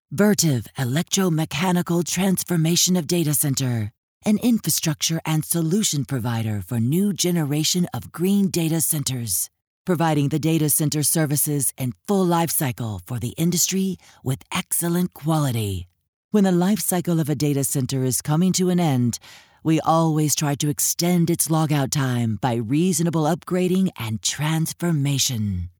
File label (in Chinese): 外籍女英 外语 美式英语; Vertiv